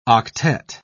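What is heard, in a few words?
"octette", English, British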